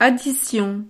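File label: "addition", French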